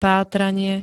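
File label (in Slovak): "pátranie", Slovak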